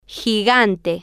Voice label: "gigante", spanish

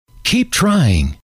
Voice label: keep trying, M

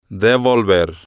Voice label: "devolver", Spanish

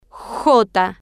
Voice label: "jota", spanish

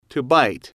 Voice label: to bite, English

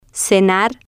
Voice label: "cenar", spanish

